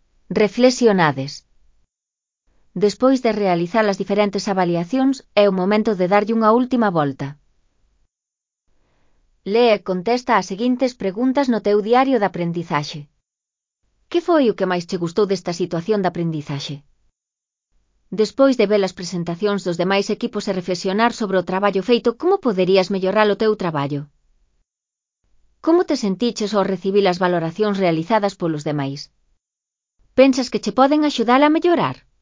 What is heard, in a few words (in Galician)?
voz sintética; Elaboración propia (proxecto cREAgal) con apoio de IA, voz sintética xerada co modelo Celtia.; Reflexionades